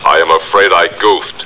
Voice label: robot goofed